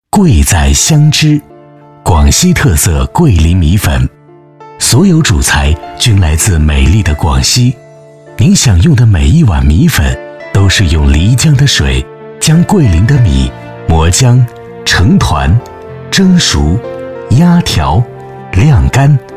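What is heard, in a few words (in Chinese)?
男S347 国语 男声 宣传片-餐饮解说-陈述 大气浑厚磁性 沉稳; 桂在香知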